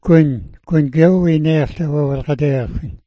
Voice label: "gwin", smalgyax